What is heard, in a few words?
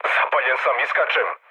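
Serbian on fire mood low, speech; War Thunder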